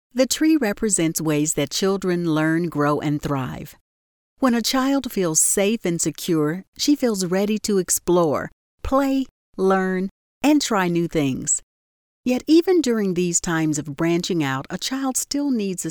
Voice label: E-learning